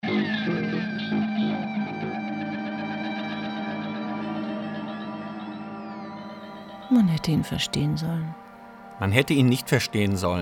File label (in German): Hoerspiel; Normalverdiener; BR